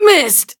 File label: hit, en; cookie run